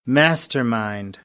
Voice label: "mastermind", American; AmericanBritish